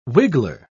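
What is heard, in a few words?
"wiggler", British English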